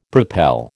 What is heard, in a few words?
"propel", American